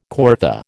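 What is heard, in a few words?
"coryphée", American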